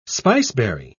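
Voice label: "spiceberry", British English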